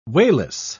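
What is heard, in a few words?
"wayless", British English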